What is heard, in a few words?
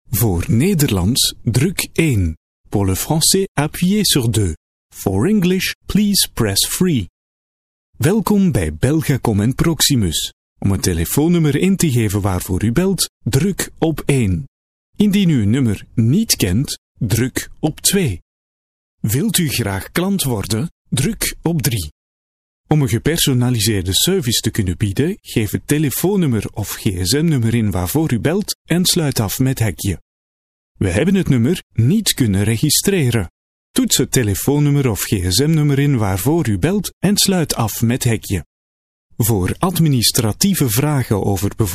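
flemish male ivr; dutch; voice demos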